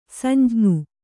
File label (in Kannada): "ಸಂಜ್ಞಿ", Kannada